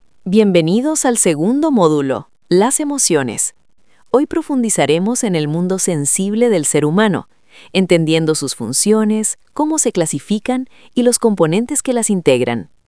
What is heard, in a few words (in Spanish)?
locucion